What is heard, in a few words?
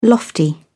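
"lofty", British English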